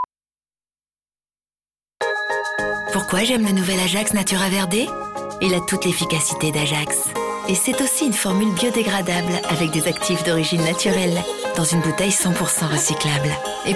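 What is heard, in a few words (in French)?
bon conseil douce, comédienne voix off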